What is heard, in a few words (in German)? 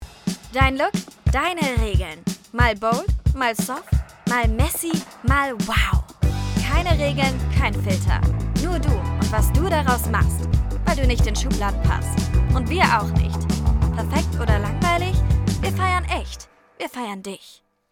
Hoerprobe Werbung Frech